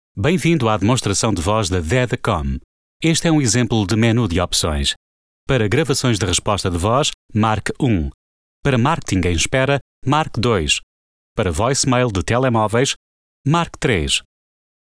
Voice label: Voice over PORTUGEES Europees man

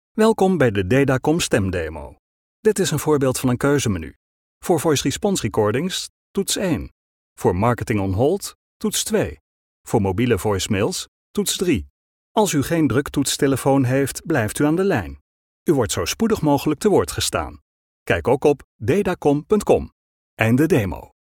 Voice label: Voice over Nederlandse man

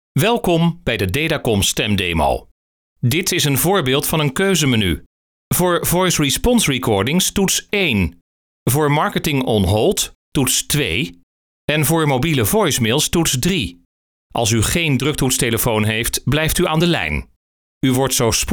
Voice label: Voice over Nederlandse man

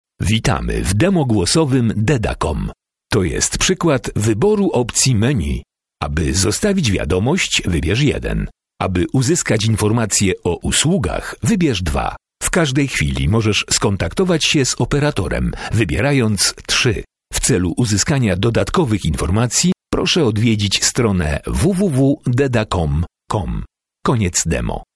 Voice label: Voice over Pools man

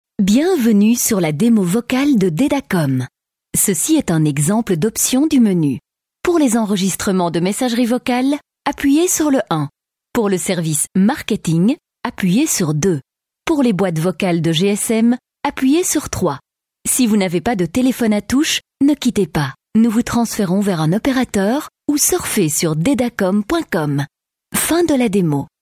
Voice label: Voice over Frans Zwitsers vrouw

